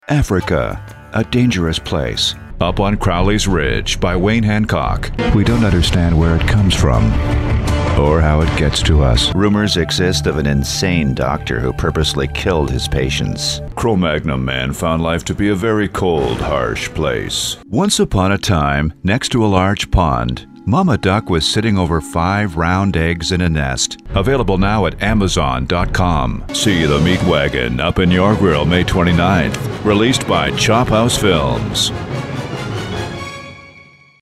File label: Trailers